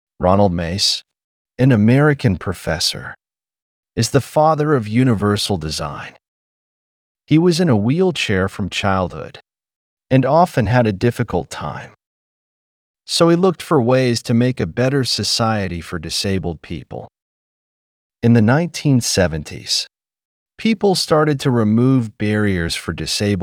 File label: English